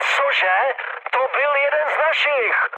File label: player killed ally, speech cze, mood med; War Thunder